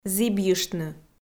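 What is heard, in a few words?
"зібйыштны", Komi, female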